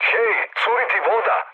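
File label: Serbian damaged water mood med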